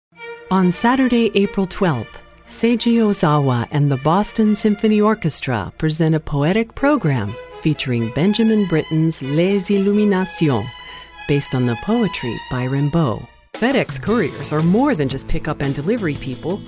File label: Commercial